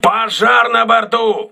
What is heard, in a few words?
voice message fire on board, crew ships russian